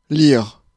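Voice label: "lire", French; Mots francais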